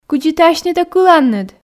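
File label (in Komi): кыдзи ті асьнытӧ кыланныд, Komi, female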